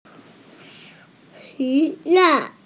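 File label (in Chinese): "xu3yuan4", Mandarin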